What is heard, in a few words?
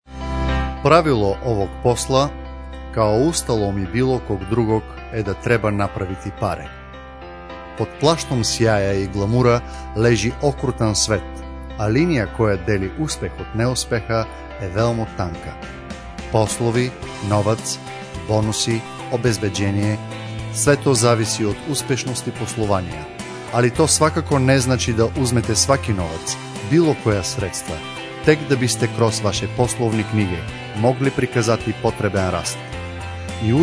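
serbian male voice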